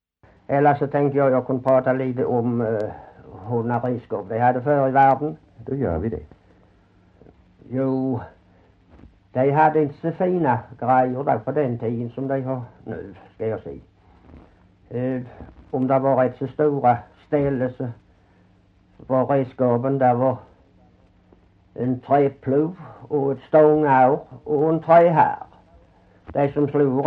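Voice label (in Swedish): Hörja Skåne, Svenska sagor dialekter; Folkminnen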